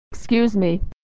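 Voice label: "excuse me", American English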